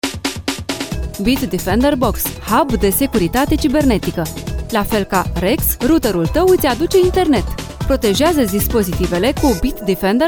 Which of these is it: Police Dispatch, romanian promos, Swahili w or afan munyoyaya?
romanian promos